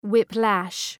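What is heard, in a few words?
"whiplash", English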